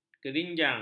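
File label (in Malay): "kerinjang", Malay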